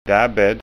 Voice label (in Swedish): "dabbed", skanska